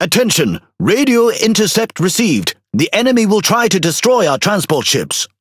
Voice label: MESSAGE ENEMY DAMAGE TRANSPORT START, EN GB; World Of Warships